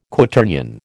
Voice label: "Quaternion", American